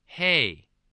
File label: "hey", English, spelling